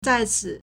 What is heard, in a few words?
"zai4ci3", Chinese